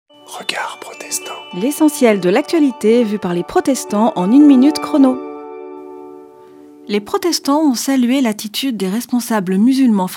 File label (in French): Spot radio; Regards protestants